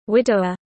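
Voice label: "Widower", English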